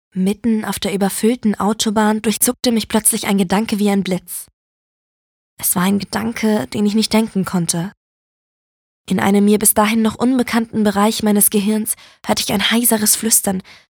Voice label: Geheimnisvoll; Nachricht

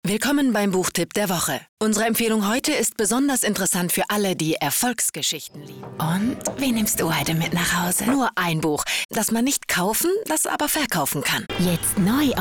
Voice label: Werbung Variabel

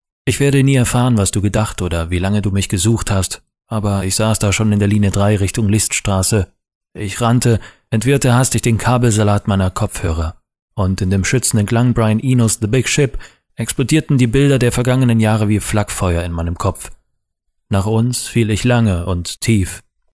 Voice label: Neutral

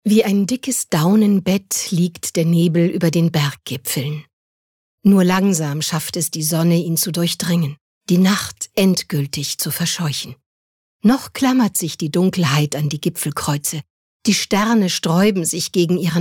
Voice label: Schauspiel, Poetisch

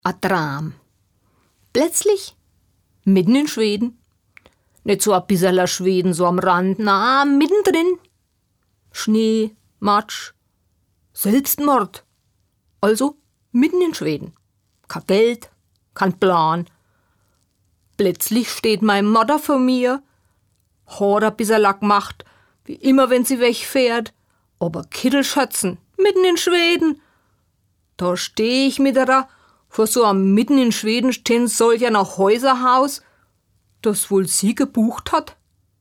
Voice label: Dialekt Fraenkisch

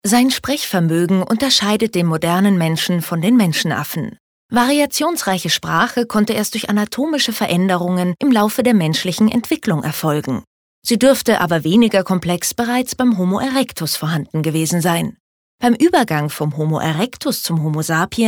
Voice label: Sprache Neutral

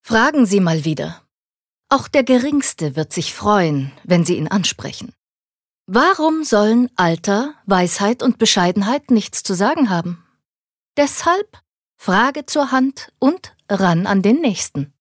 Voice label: Werbung Ueberheblich

